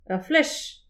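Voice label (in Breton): "ur flech", Breton; petit precis de prononciation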